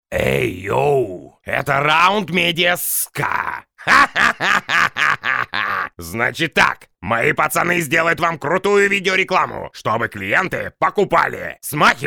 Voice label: gangster

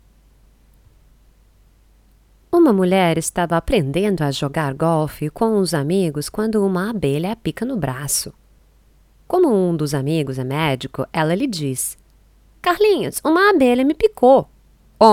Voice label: lang portugalskij

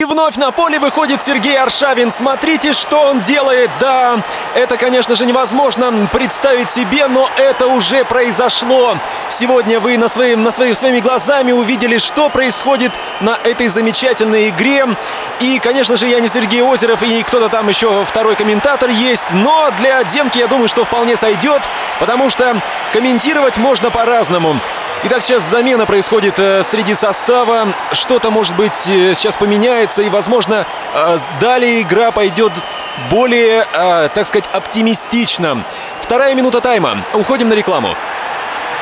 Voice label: sportivnyj kommentator

